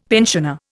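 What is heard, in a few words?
"pensioner", Indian English; Dictionary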